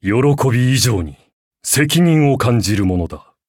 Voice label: levelup, ja; cookie run

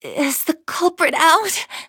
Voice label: hit, en; cookie run

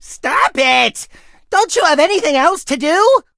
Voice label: touch, en; Cookie Run

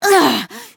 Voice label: hit fr; Cookie Run

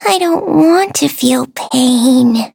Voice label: touch, en; Cookie Run

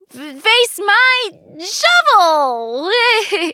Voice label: battle en; cookie run

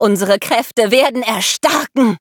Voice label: defeat, de; Cookie Run

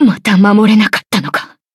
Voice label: defeat, ja; Cookie Run

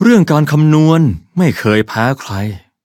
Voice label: levelup, th

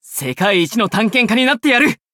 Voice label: upgrade, ja; Cookie Run

